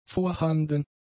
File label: "vorhanden", German; adjectives